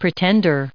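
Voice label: "pretender", us English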